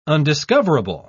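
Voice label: "undiscoverable", British English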